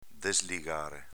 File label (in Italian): "desligare", dialetto veneto; desligāre v. slegare